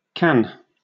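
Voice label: "can", British English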